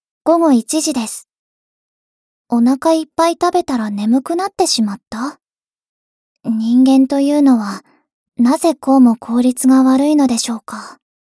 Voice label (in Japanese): 時報ボイス 電脳少女; ボイスコレクション 電脳少女編